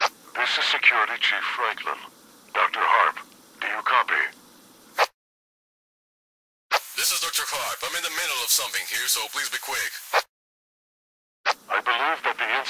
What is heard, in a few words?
Chatter; Radio